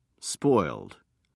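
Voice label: "spoiled", British English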